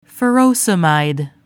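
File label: "furosemide", English; Davis Drug Guide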